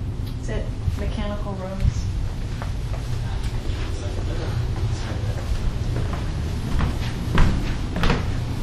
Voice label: voice in basement